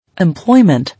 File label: "Employment", English